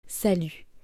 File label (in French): "Salut", French